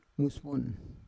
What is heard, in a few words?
"mues von", Roon